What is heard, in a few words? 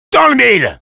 Sniper good job, fr; "Dans le mille !"